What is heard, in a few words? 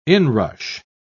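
"inrush", British English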